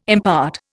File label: "impart", Indian